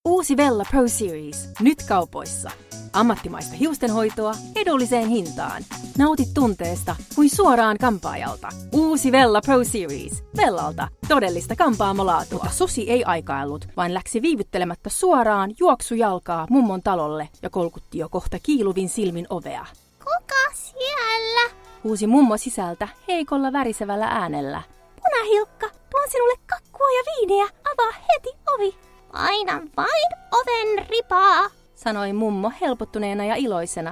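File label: Finnish F, female; voice over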